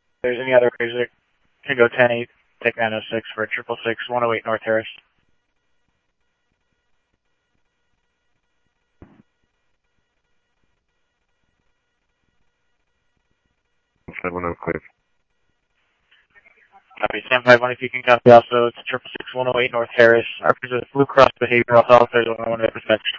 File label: Sheriff, scanner audio